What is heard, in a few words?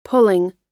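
"pulling", American English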